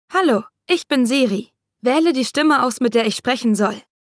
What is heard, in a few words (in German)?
Siri Stimme